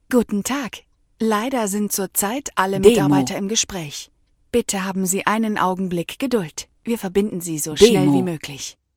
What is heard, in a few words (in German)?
Ansage Automatische Vermittlung Mitarbeiter